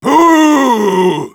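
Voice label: jeers, German; Engineer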